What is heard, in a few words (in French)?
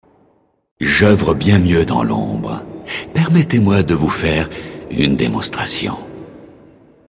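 Male Flirt, voix françaises; Elfe du Vide; Demonstration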